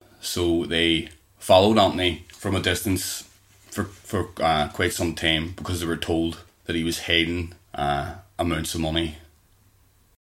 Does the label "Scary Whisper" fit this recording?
No